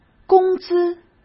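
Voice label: "工资", Mandarin Chinese; chinese-flashcards; hsk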